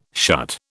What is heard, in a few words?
"shut", British